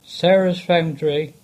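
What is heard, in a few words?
"Sarahs Foundary", English; place names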